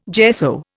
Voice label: gesso, Indian

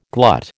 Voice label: "glut", American

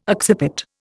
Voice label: "occiput", Indian

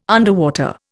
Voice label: "underwater", Indian

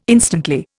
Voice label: "instantly", Indian

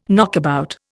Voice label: "knockabout", Indian

